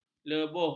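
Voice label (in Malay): "lebuh", Malay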